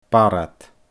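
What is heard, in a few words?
"barrfhad", Scottish Gaelic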